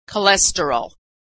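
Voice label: "cholesterol", American English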